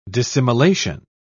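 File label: "dissimilation", British English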